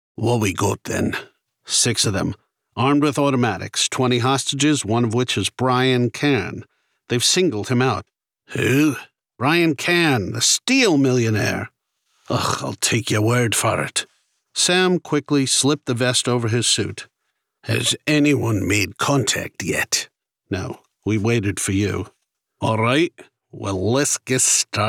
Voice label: Male Audiobook